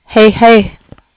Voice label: clipped